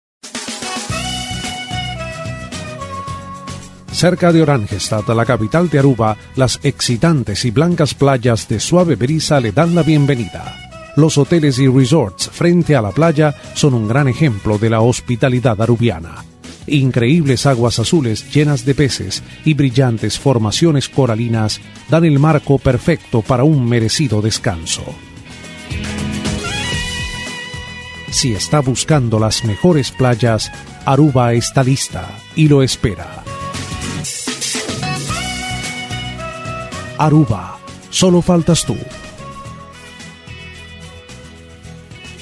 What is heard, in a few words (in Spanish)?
Neutral Spanish